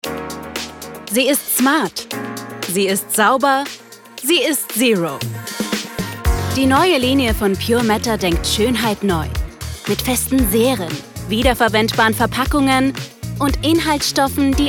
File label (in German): Werbung; Pure Matter